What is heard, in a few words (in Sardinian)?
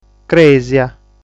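"Crèsia", Sardinian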